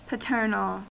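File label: "paternal", US English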